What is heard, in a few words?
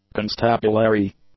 "constabulary", English; nouns